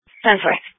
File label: Police Dispatch